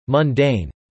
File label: "mundane", English